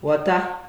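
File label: "water", Nigerian Pidgin, male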